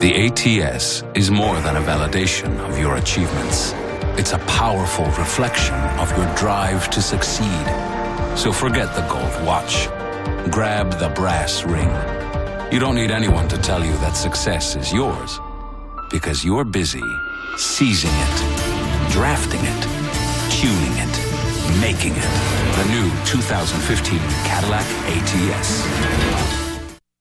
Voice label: Voiceover, Foreign Language, Spanish; new york